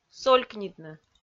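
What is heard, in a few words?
"солькнитны", Komi, female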